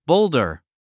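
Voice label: "boulder", English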